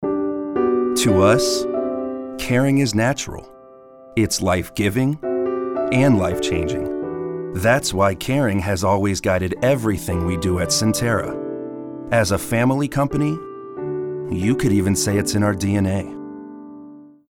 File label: Caring